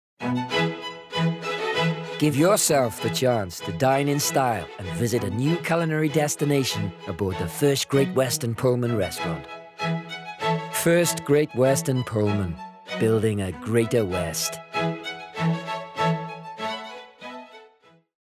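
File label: voice over; FGW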